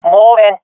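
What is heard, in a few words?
omw, swat female; vo; Counter Strike Model Pack; CS:GO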